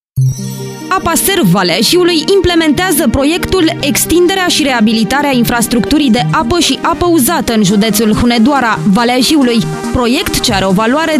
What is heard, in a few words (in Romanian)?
spot radio